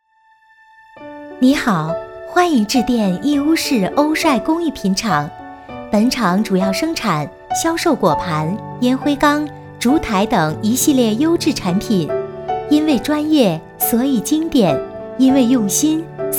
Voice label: 彩铃, 国语女声配音

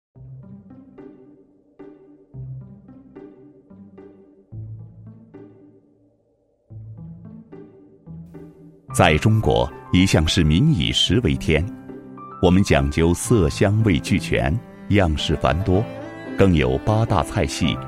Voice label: 男 栏目配音, 国语男声配音; 麦当劳

